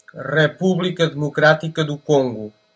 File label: "democratic republic of congo", pt; gcompris; geography